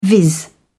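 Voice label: "viz", British English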